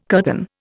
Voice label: "curtain", Indian